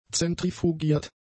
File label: "zentrifugiert", German; adjectives